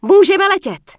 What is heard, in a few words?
Human Female Flee; wowpreklad; czwow; HumanVocalFemale